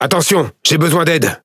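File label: French Speech Quick Commands Sos; World Of Warships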